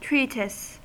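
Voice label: "treatise", US English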